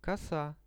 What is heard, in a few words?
"kosa", Russian; коса; Это коса.; visual dictionary